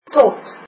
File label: to, afrikaans